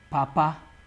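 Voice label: "papa", Nigerian Pidgin, male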